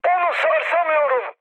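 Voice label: Turkish aircraft damaged, mood med, speech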